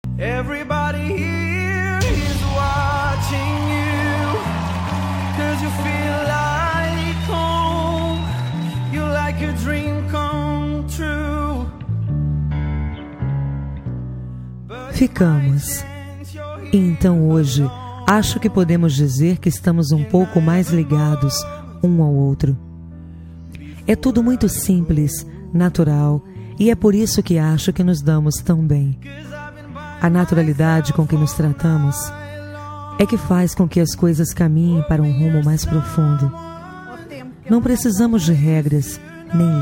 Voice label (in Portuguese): fem, Voz Feminina; dia dos namorados suave